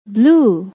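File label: "blue", English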